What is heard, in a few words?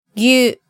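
"gyu", Japanese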